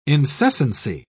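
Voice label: "incessancy", British English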